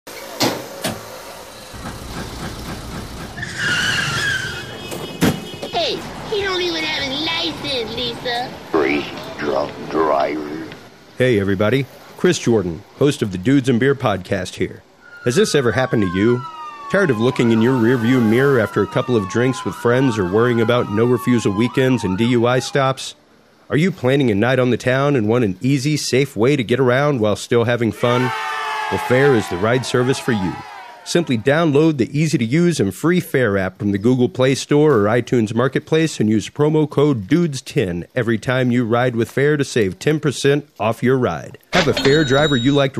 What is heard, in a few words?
commercial spot; FARE